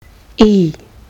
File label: long i, kiwi english